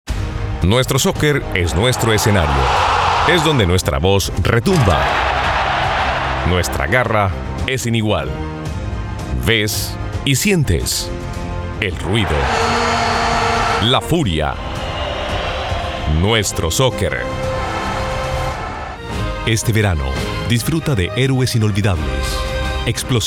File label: authoritative voiceover